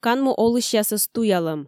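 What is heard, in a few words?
"канму олысьясӧс туялӧм", Komi, female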